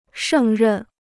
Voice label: "胜任", Chinese